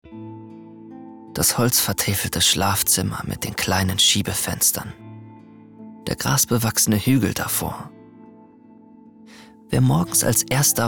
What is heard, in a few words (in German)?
erzaehlung; mein vater; LOFT VOICES